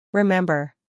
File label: "remember", English